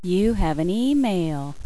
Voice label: sexy email